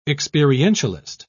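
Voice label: "experientialist", English, British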